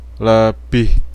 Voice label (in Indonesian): "lebih", Indonesian